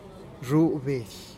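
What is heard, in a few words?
"zhub bedih", Teotitlán del Valle Zapotec; chicken soup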